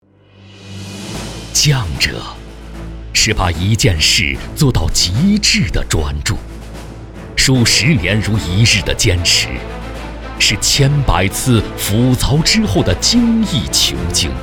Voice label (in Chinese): 男 匠者 大气走心宣传